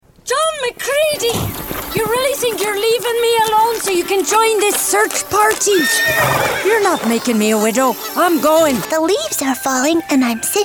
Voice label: Animation